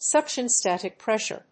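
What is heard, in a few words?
"suction static pressure", English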